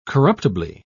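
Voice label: "corruptibly", British English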